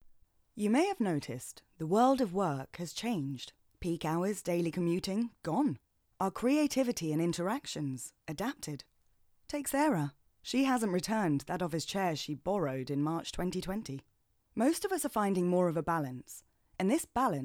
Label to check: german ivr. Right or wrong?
Wrong